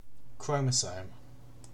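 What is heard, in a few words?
"chromosome", English, British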